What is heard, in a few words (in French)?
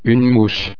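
"mouche", French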